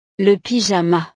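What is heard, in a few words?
"le pyjama", French; ropa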